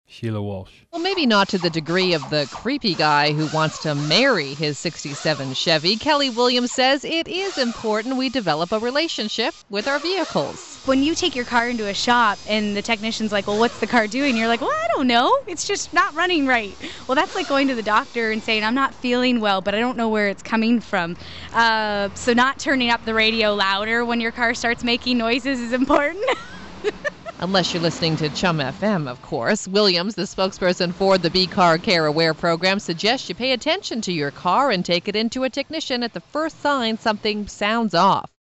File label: Reporting, Voice